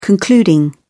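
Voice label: "concluding", British English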